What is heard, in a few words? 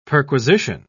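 "perquisition", British English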